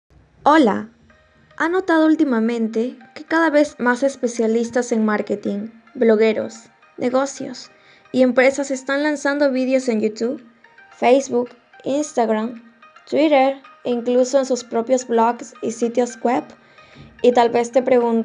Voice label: Spanish (LA), Female

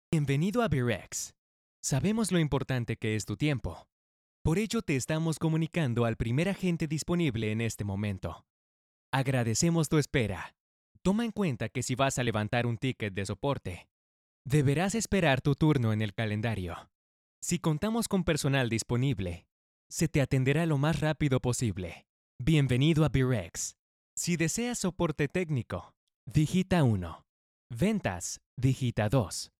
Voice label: Spanish (LA), Male

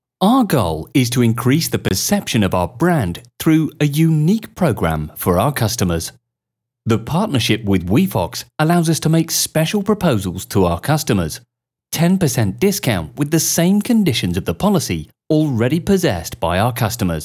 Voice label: English (UK) Male

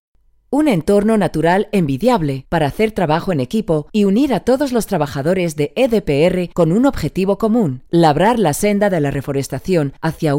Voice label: Female, Spanish

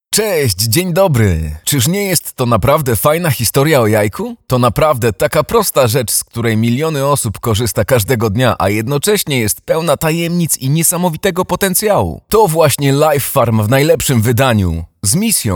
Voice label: Polish, Male

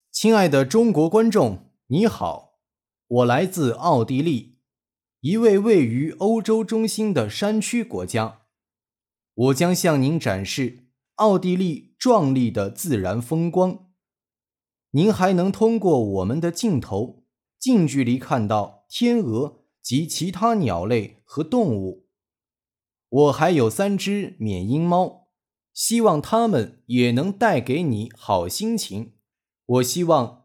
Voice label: Chinese, Male

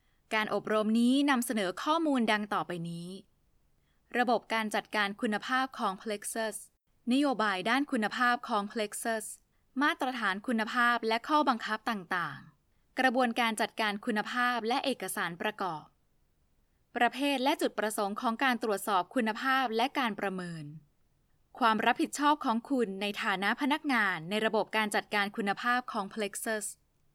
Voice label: Thai, Female

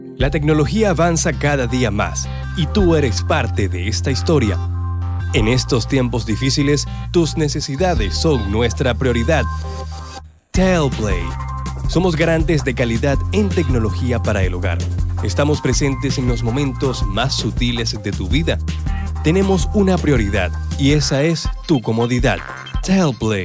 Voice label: Spanish (LA), Male

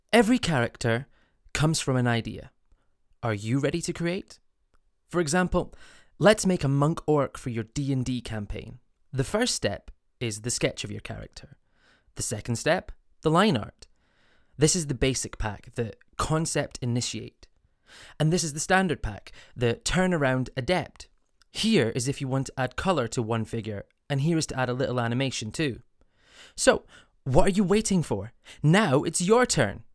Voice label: English (UK), Male

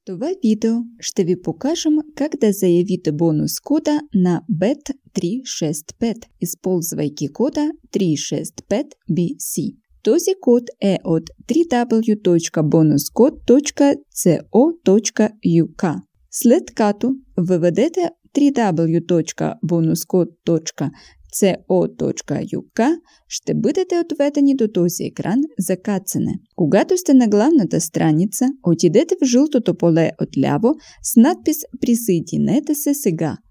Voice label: Bulgarian, Female

